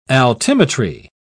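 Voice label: "altimetry", British English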